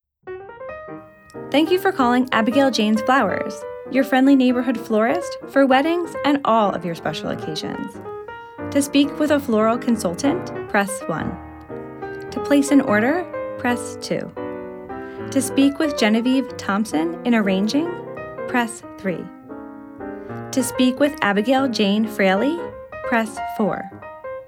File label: Phone